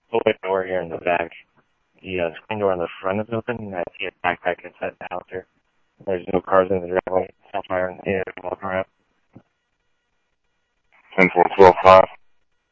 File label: Police, scanner; ScottsdalePolice; H-Scottsdale